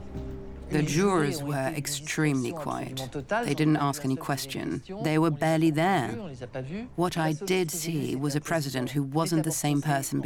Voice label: UK voiceover docu; corse; AVOCATE